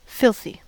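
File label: "filthy", US English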